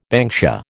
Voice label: "banksia", American